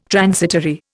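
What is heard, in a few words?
"transitory", Indian English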